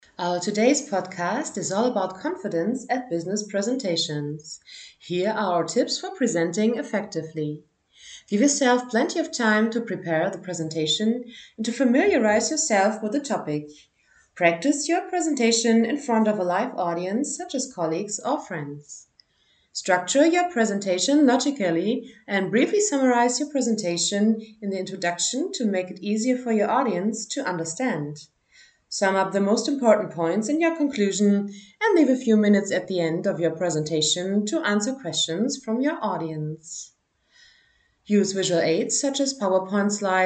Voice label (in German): Sprechprobe englisch